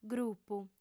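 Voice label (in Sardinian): "grupu", Sardinian, female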